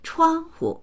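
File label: "chuang1hu", Chinese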